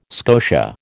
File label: "scotia", American